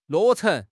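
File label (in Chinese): "落成", Chinese; HSK6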